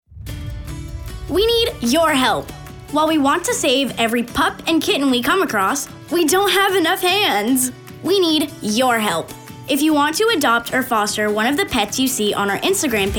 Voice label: PET ADOPTION, fun loving, energetic and versatile teen